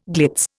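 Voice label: "glitzy", Indian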